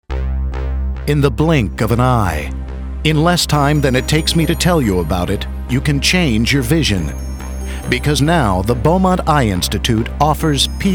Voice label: AUTOMOTIVE -- High-energy, Excitement; voiceover; beaumont